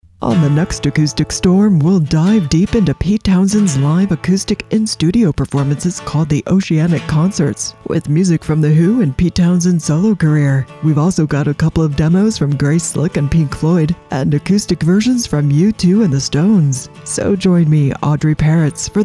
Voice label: PROMO; Acoustic Storm; USRN-FM; kkcl-am